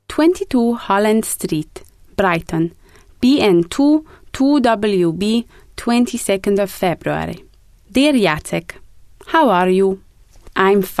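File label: Polish, English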